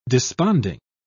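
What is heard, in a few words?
"desponding", British English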